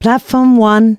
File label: female, announcements